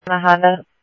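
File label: Simulcast police department, scanner audio trunk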